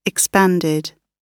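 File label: "expanded", British English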